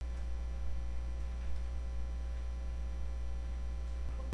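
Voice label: "lick", spoken word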